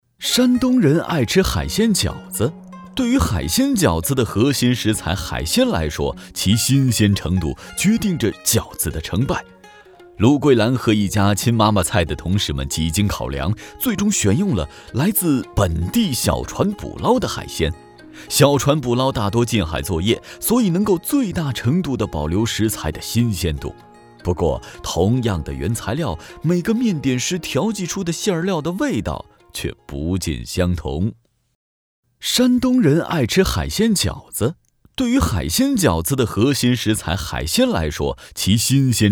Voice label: 其他 栏目 美食风趣

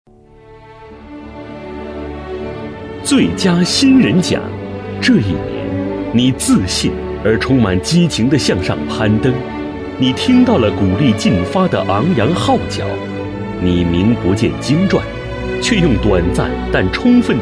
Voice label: 男国 专题 颁奖; 最佳新人奖

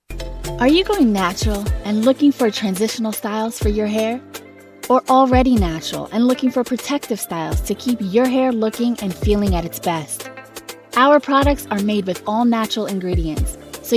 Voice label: 外籍女英 外语 美式英语 广告动画 轻快

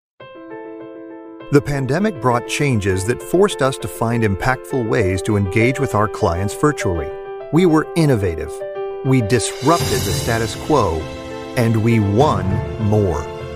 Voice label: 外籍男英 外语 美式英语 宣传片解说 磁性

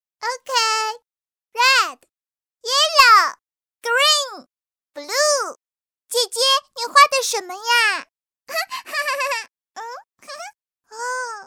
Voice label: 童声 说英语小可爱萌女孩

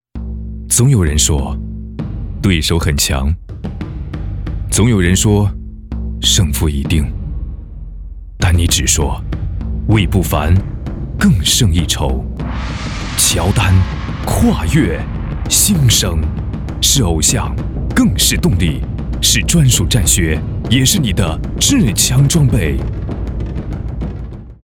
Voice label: 广告, 服装, 篮球鞋; 乔丹篮球鞋

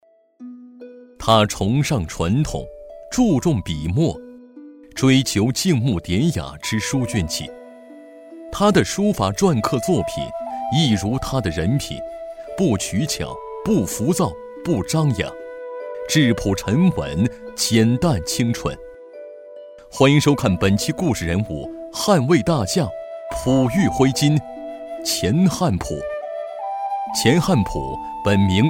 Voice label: 专题 人物 人物介绍, 男国

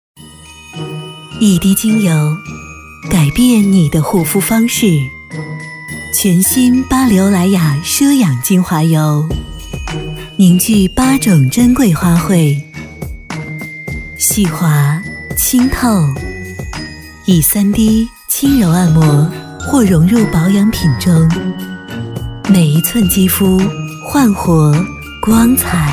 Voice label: 广告 化妆品 精华油 温柔, 女国; 巴黎欧莱雅奢养精华油mix

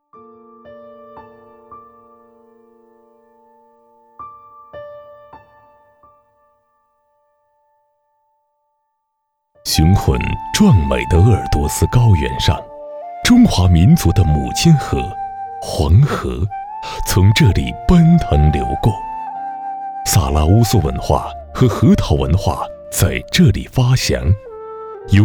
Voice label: 男国 纪录片 人文历史; 藏传佛教

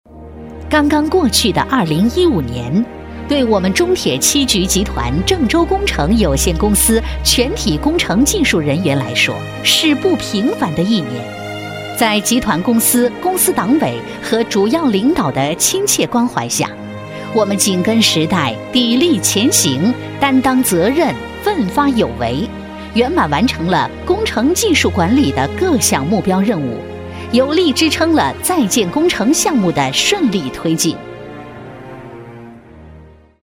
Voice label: 专题 汇报 亲切, 女国; 中铁七局汇报

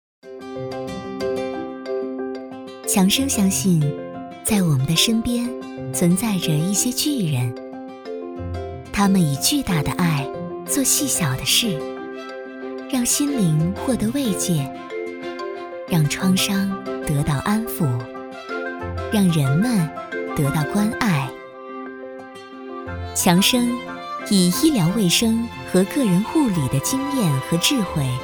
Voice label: 清新; 广告; 品牌形象; 强生

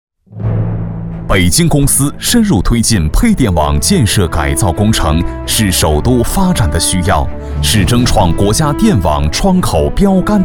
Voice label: 专题 政府 公司配电网建设改造工作专题片 浑厚

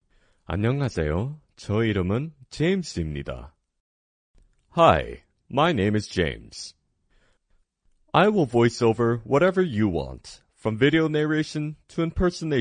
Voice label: 韩语男 外语 小语种 讲述

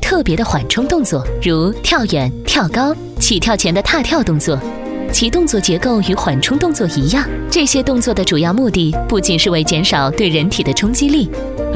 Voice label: 宣传片 病毒 猫咪, 女国

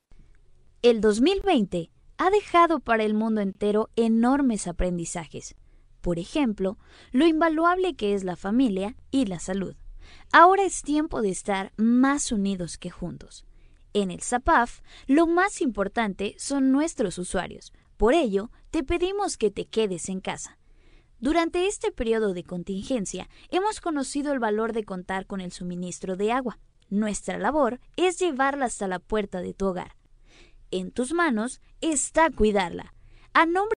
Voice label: 西班牙语女 外语 小语种

